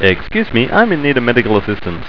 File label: yell save me